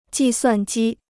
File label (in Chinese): "计算机", Chinese